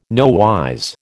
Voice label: "nowise", American